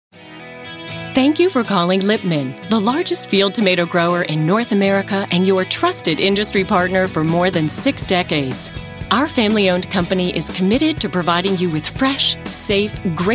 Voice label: On Hold